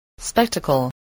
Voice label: "spectacle", English voice